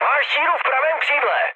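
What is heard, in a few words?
right wing, Czech, mood med; speech; War Thunder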